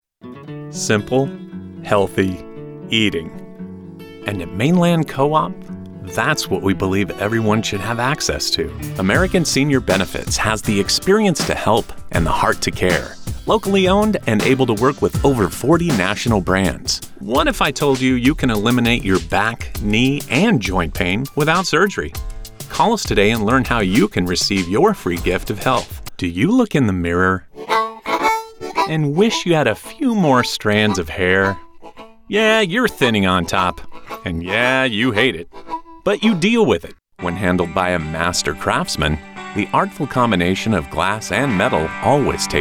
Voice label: Commercial Male

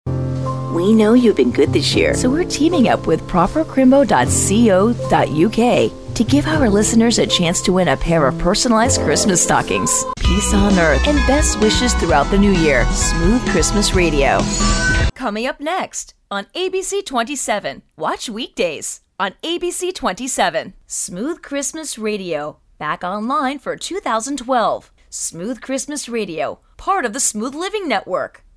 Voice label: Imaging Female, Radio Imaging; Commercial Voiceover

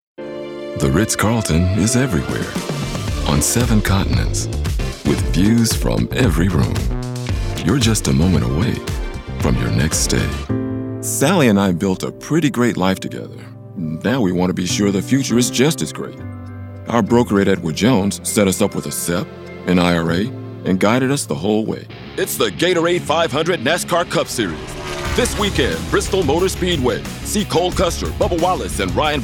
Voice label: Corporate Male; commercial voiceover

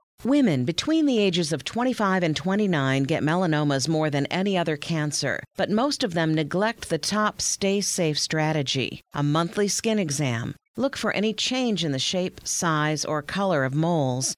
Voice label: Narration Medical Female

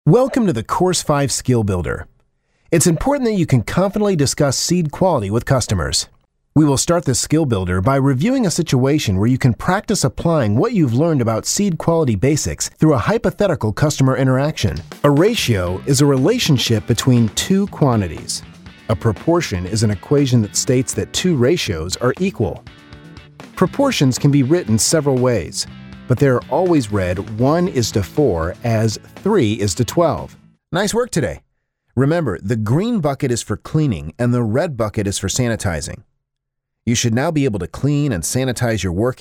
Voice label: e-learning, phone hold ivr; Narration, eLearning

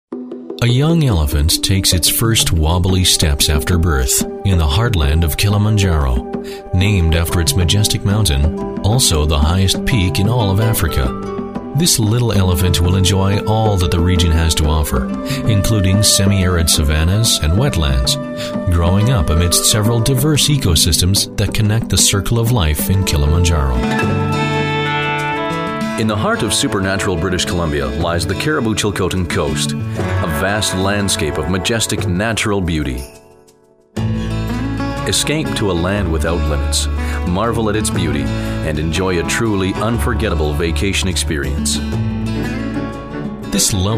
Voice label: Commercial Male

